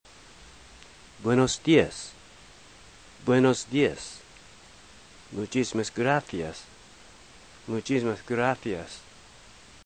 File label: spanish